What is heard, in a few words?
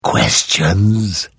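Hello, Vo; Morrowind GOTY GOG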